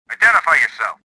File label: suspicious; XBOX; worker